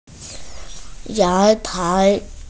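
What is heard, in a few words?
"yang2tai2", Mandarin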